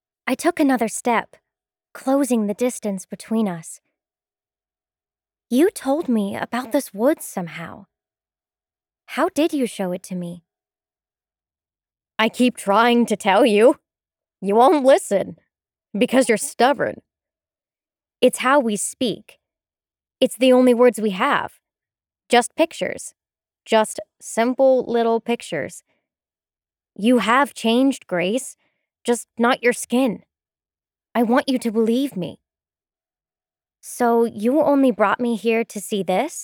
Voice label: voiceover audio books; New York

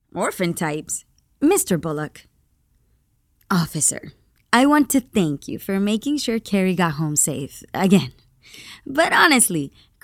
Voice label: voiceover animation; new york

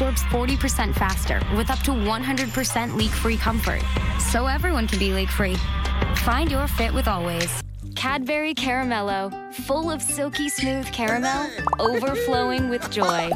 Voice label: Voiceover, Commercial, Women; New York; Stewart Talent

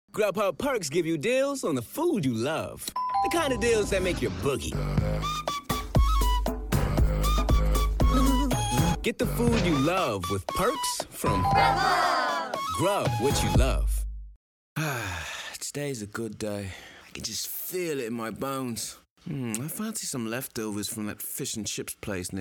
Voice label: Voiceover Commercial Men; Chicago

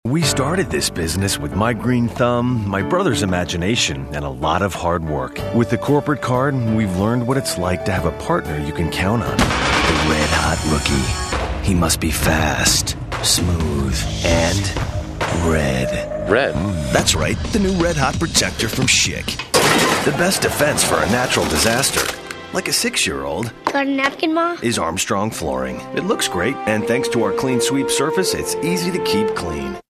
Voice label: Voiceover, Promos, Network/cable, Men; New York

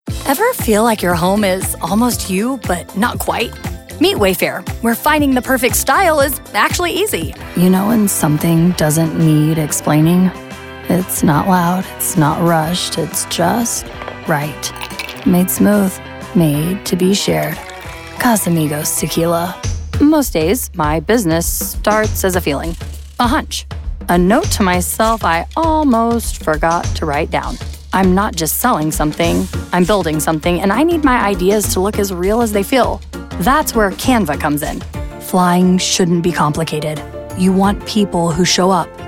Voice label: voiceover commercial women; Chicago

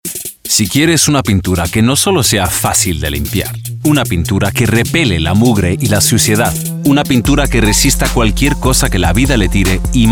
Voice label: Voiceover, Foreign Language, Spanish; new york

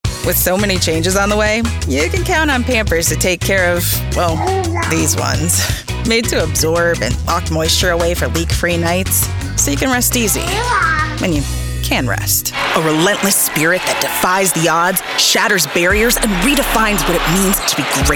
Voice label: Voiceover Commercial Women; Chicago; Stewart Talent

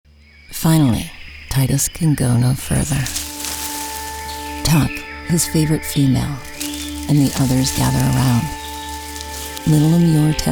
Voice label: voiceover, narration, women; new york

